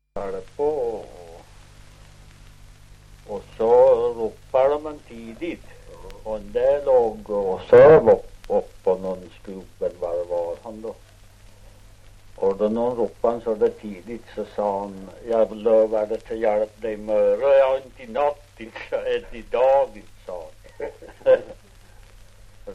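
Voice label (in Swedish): Ockelbo Gästrikland, svenska sagor dialekter; Folkminnen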